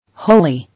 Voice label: "Holy", English